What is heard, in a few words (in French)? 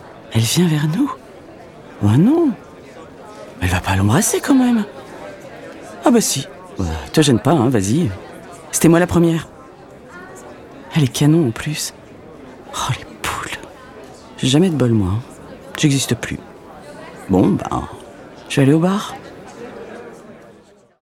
voix intérieure